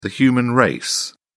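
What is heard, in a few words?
"human race", English, uk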